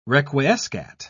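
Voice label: "requiescat", British English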